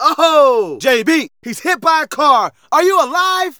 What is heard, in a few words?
Narration